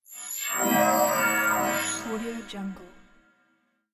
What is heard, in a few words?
techno scifi voices